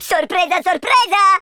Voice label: collect, Italian

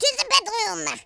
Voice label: runaway, French Lover; speech

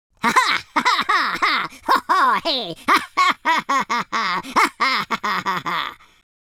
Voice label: happy; speech

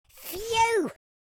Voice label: relief, Madchester

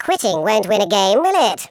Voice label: "coward", Patronising

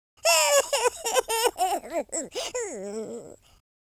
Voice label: sad, American Wrestler

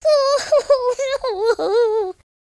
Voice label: sad

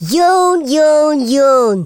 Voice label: "bummer", Teenage Angst speech

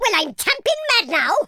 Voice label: ouch, Welsh; speech

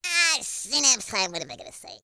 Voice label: angry

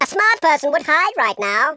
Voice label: "take cover", Patronising

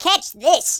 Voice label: "fireball", speech

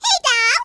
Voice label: "grenade", speech

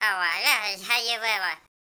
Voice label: angry; Worms3D; Whoopsie

